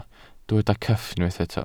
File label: "cyff", Welsh